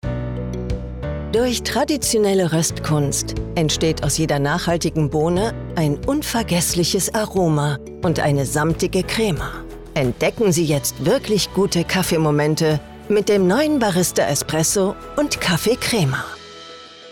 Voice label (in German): Werbung Kaffee